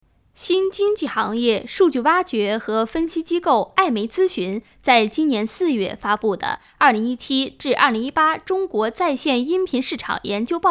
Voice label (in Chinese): 新闻 干音